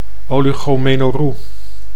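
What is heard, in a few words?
"ologonenorroe", Dutch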